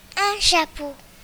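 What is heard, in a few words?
"chapeau", French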